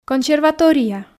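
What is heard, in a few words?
"консерватория", Komi, female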